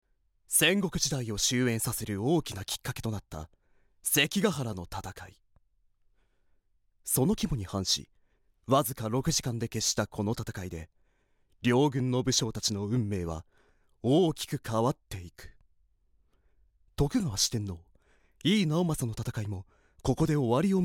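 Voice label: voice sample, narration; ナレーション１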